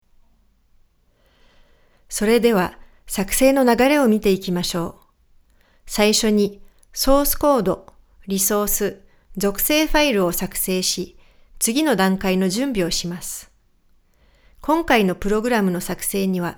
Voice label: Voice Over JP sample